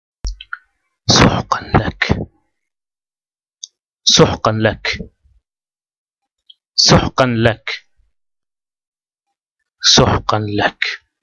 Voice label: damn you, arabic lines

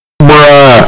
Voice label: Bruh Sound Effect

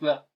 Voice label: uah, silly sound effects

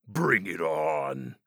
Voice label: Knight Taunt

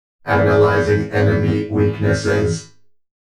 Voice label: analyzing enemy weaknesses, video game announcer; DROID

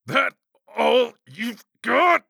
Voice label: Hero Semiwhelmed

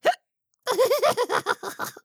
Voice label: Minion Drunk

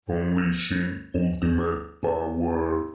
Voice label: robot voice

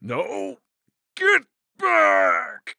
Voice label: Hero Overwhelmed

